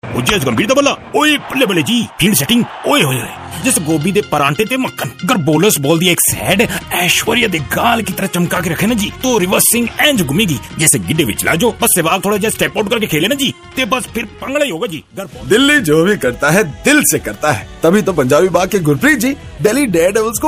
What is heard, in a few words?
Sardarji, male voice